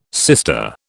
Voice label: "sister", British

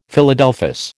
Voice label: "Philadelphus", American

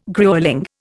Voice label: "gruelling", Indian

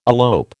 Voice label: "elope", American

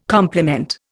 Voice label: "complement", Indian

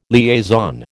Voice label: "liaison", American

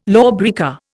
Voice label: "lawbreaker", Indian

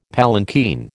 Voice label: "palanquin", American

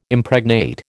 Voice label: "Impregnate", American

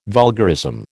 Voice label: "vulgarism", American

